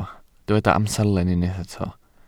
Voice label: "amserlen", Welsh

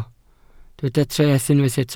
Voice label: "treth", Welsh

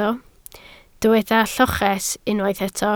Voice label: "lloches", Welsh

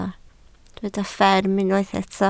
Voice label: "fferm", Welsh

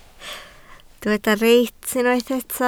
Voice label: "reit", Welsh

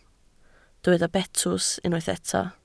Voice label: "betws", Welsh

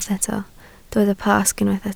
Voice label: "Pasg", Welsh

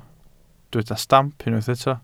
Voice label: "stamp", welsh

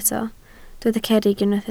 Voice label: "cerrig", Welsh

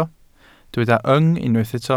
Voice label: "yng", Welsh

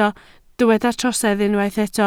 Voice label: "trosedd", Welsh

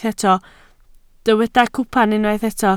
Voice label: "cwpan", Welsh

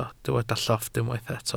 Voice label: "llofft", welsh

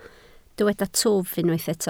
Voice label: "twf", Welsh

